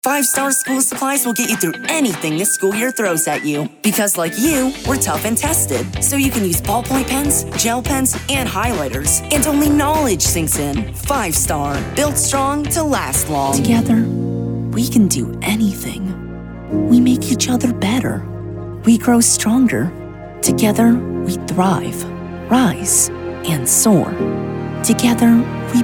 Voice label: Commercial

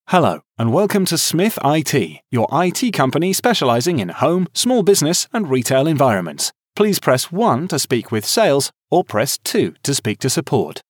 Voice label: male

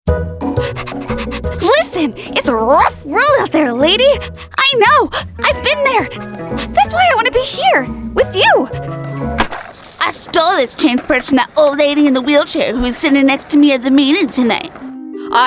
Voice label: Animation

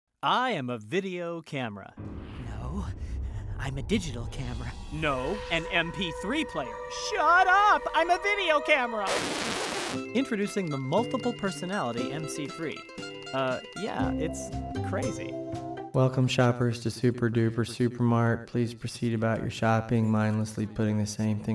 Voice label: Commercial

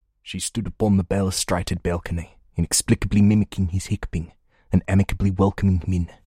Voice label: South African Older

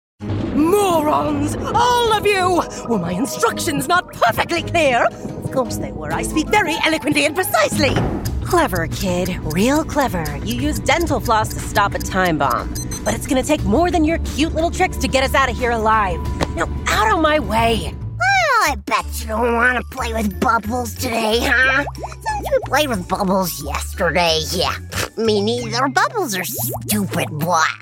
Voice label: Animation

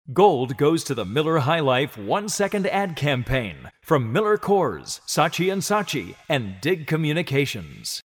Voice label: Clear and congratulatory

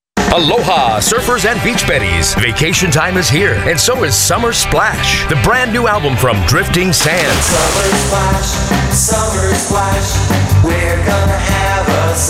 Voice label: radio spot

